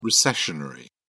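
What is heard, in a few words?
"recessionary", British English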